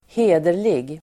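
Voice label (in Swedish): "hederlig", Swedish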